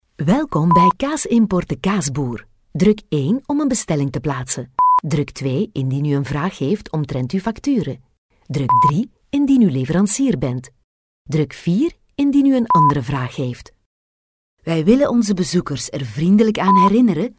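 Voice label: Flemish, Messaging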